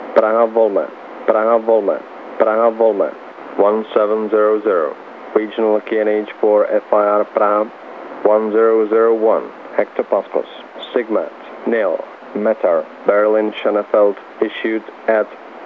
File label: Praha VOLMET 128,60MHz